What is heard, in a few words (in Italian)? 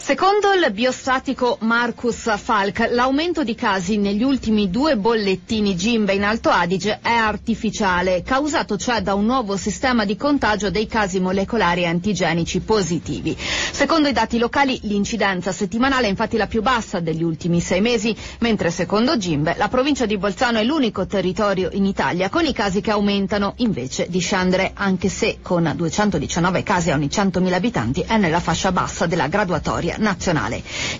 Radio; Radio Italia Anni 60; Covid Falk aumento casi in Alto Adige è artificiale